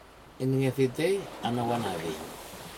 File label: sand draw, Aneityum